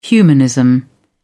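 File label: "humanism", English, uk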